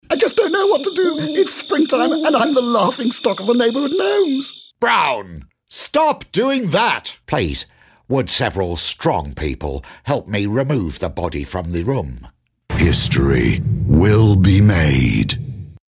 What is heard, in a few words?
uk com characters